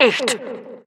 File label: announcer ends 8 sec